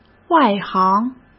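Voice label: "外行", Mandarin Chinese; hsk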